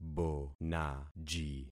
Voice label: "bonaji", concat syllables; Affixing experiment